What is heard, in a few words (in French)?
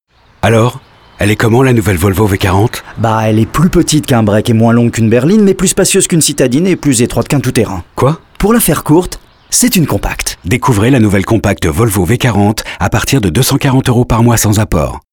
Adulte Mature Posé; Pub Volvo V40